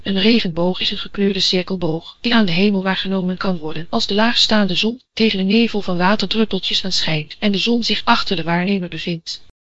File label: speaker, nl NL